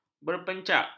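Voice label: "berpencak", Malay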